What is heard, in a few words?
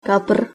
"kapr", Czech